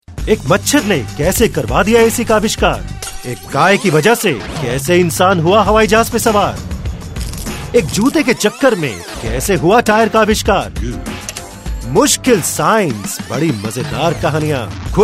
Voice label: Hindi, male voice